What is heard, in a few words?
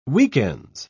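"weekends", British English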